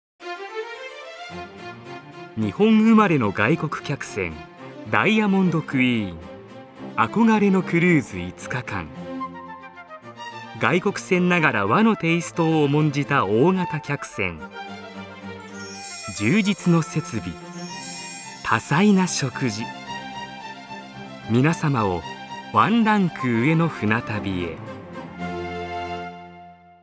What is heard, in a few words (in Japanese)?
voice sample CM 高級感